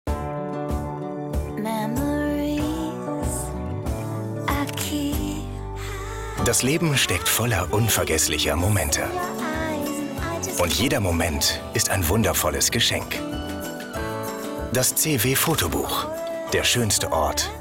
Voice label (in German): spot tv; cewe fotobuch